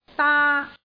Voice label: "daa", Cantonese

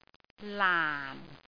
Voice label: "laan4", cantonese

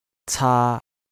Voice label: "caa1", Cantonese

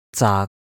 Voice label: "zaak6", cantonese

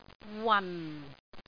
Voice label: "wan4", cantonese

